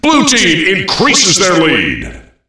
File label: blue team increases their lead, Announcer Voice; Unreal Tournament; ut2003